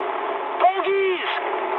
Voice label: eng enemy detected mood high, speech eng

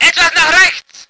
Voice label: voice ger vehicle

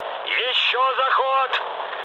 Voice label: bomb failure, mood med, speech ru; War Thunder

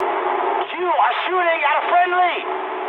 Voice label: eng player friendly fire mood high, speech eng

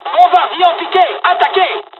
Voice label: japanese first wave, dialogs french; War Thunder

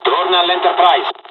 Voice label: evac, dialogs italian; midway us; War Thunder

